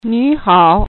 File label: chi hello